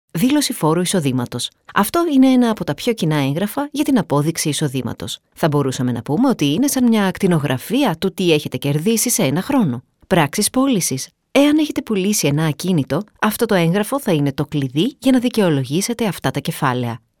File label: locutora griega greek voiceover